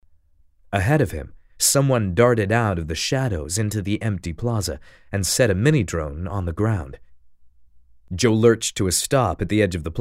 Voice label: Protest Scene from Audiobook